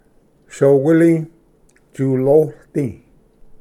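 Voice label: "soquili tsuloisdi", Cherokee; ᏐᏡᎵ ᏧᎶᎢᏍᏗ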